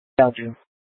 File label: Light Rail Emergency, scanner